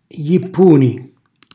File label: "jippuni", Calabrese; cucina calabrese; Glossario